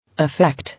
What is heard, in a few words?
"Effect", English